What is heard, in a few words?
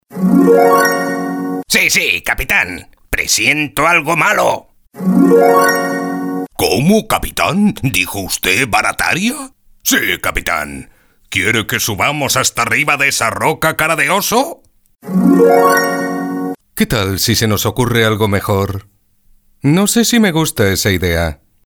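spanish video games, Male; professional Spanish voice actor since 1990; Dubbing actor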